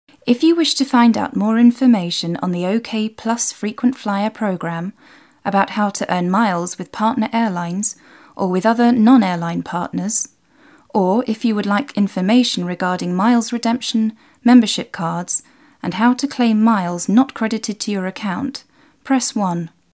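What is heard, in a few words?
Female English ivr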